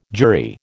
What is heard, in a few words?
"jury", American